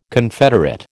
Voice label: "confederate", American